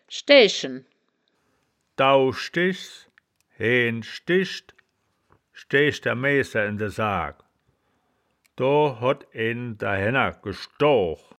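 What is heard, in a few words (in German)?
"schdaeae-schen", Wittlicher Wörterbuch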